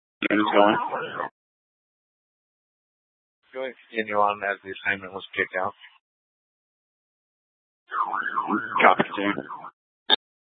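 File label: Gilbert, Fire Department, scanner; Mesa - Gilbert - AJ FD Departments; C4 Gilbert, QC, Superstition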